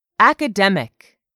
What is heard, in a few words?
"academic", English; 3000 words